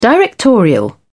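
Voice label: "directorial", British English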